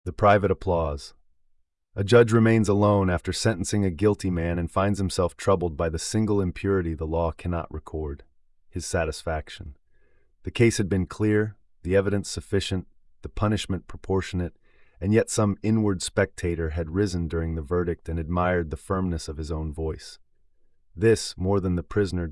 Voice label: narration